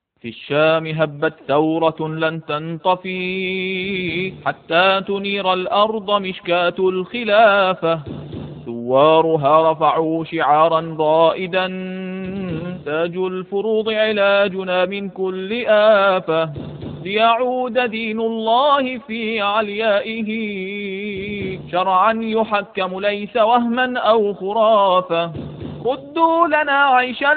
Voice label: radio